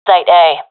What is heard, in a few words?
professional fem, vo agents; Source Model Packs; Counter Strike Model Pack; CS2